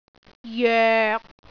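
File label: "joek6", Cantonese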